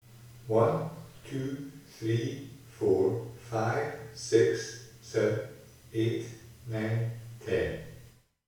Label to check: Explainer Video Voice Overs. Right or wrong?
Wrong